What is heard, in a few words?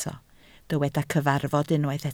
"cyfarfod", Welsh